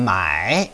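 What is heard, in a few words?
"mai3", Chinese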